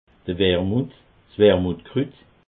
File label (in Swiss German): "wermuth", alsace